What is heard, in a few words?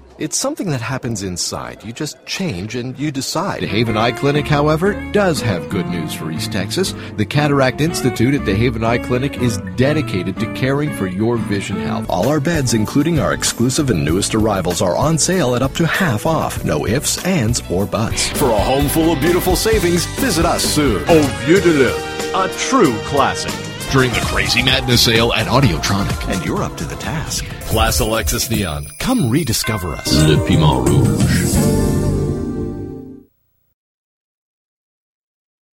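happy, radio commercial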